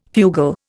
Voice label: "fugal", Indian